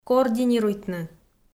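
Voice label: "координируйтны" Komi, female; audio dict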